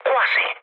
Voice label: bomb failure mood low, speech it; War Thunder

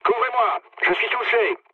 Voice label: aircraft damaged mood low, speech fr; War Thunder

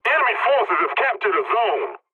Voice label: pilot capture enemy, english; War Thunder

